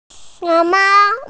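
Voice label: "mao1", Mandarin Chinese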